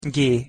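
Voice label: "g", Hungarian pronunciation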